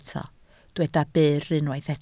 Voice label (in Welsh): "byr" Welsh